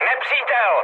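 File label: enemy detected, Czech, mood med; speech; War Thunder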